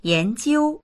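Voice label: "yan2jiu1", Mandarin Chinese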